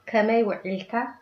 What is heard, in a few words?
good afternoon, tigrinya